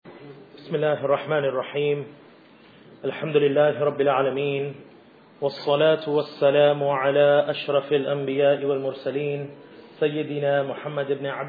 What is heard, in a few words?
khutbah; rain